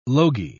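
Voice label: "logy", British English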